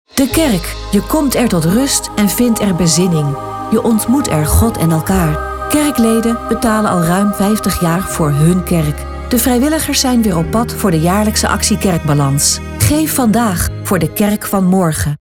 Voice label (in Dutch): Commercial Actie Kerkbalans; NPO; STER